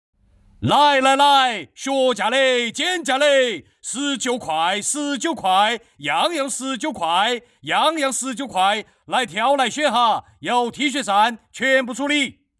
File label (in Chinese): 四川方言 男 四川方言促销; 方言配音